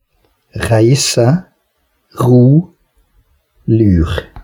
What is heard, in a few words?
consonant r bergen